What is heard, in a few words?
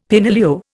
"penillio", Indian; Dictionary